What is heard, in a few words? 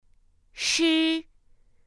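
"sh", Mandarin Chinese pinyin; pinyin2; pinyinji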